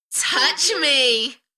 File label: UK female Touch me Enthusiastic; beanstalk audio